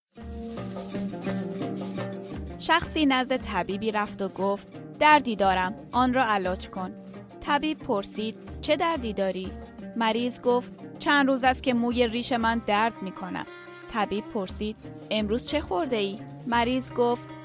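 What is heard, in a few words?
Audiobook Persian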